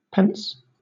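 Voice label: "pence" English, British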